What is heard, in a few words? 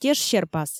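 "тешсерпас", Komi, female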